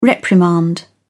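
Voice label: "reprimand", British English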